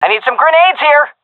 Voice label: request grenade, seal fem; vo; Counter Strike Model Pack; CS:GO

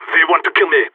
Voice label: radio taking fire; Counter Strike; CS:GO; phoenix

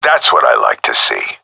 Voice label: cheer; Counter Strike Model Pack; CS:GO; seal diver

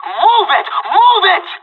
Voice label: radio lets go, pirate

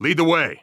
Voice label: following friend, heavy; vo; Counter Strike Model Pack; CS:GO; phoenix coop

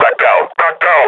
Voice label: near panic; Half Life Model Pack

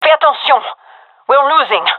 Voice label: round losing, gendarmerie fem; vo; agents

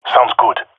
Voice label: agree, male epic; player vo; Counter Strike Model Pack; CS:GO

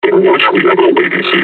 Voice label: taunt, combine charger; Half Life Alyx

